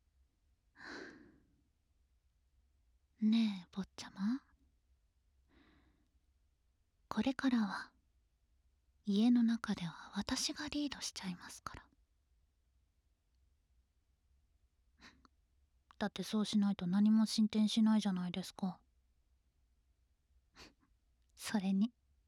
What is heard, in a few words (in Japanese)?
添い寝 甘々ピロートークで添い寝安眠, ASMR; SEなし